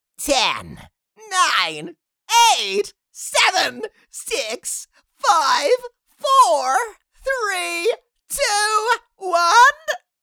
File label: Countdown little fantasy creature; fantasy friends